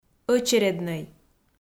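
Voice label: "ӧчереднӧй", Komi, female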